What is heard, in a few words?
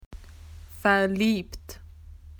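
"in love", Australian English; PictureDictionary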